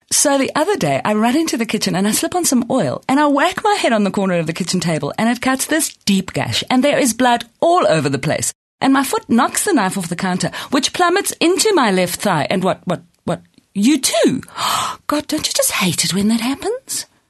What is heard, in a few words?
English Conversational